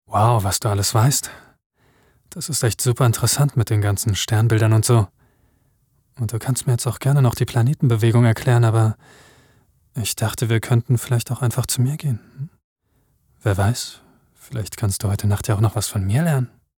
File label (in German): sexy